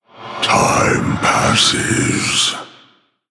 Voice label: Male Orc Thinking; Garrosh Deathknight